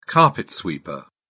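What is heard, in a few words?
"carpet sweeper", English, British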